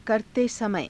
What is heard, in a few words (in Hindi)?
"karate samaya", Hindi; ･･･している時; करतेसमय